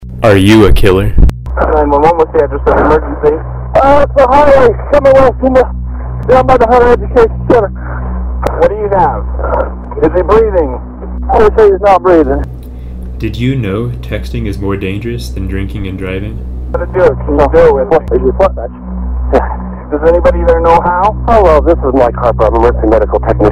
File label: Radio PSA